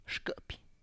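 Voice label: "xkIpy", Tlacochahuaya Zapotec; stomach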